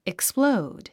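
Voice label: "explode", English; 発音 iksplóud イクスプロード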